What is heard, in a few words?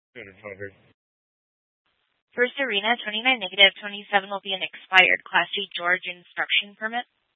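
Tempe Police Department, scanner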